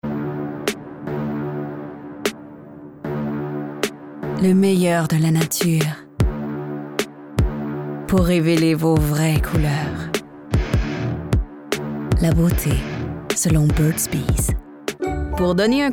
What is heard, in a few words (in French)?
Demo Pub Montage